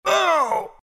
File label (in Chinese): pain, zh; Dota 2